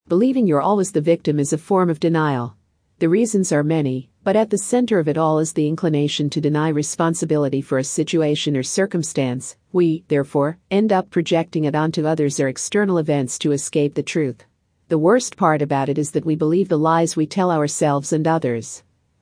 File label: Female